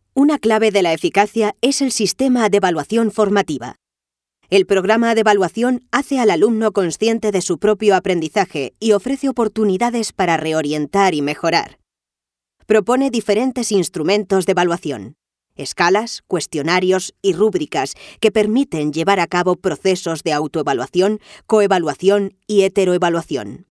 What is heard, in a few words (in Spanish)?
elearning